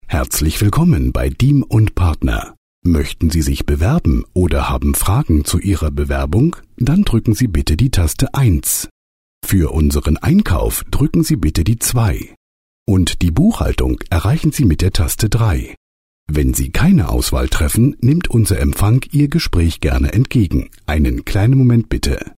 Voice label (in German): hoerbeispiele ivr